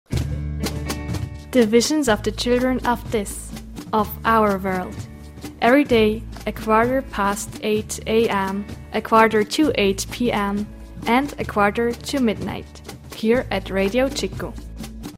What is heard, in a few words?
jingle English; Visionen